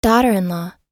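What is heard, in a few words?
"daughter in law", American English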